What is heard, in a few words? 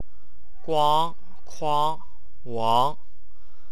"uaong", Wu Chinese; 广矿黄